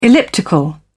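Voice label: "elliptical", British English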